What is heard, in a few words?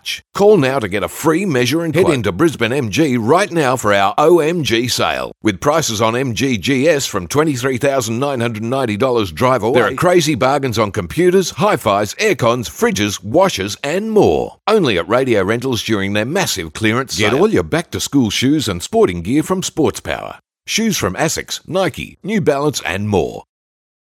Hard Sell